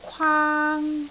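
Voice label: "kwaang1", Cantonese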